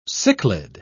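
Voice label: "cichlid", British English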